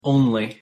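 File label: pronunciation "only", English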